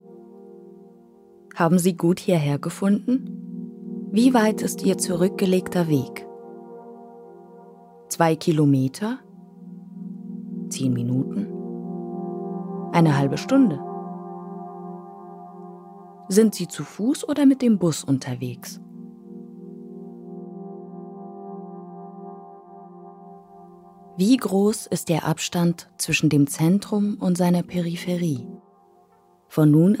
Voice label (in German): Audiowalk Tor zu Stadt warm einladend freundlich